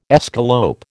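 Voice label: "escalope", American English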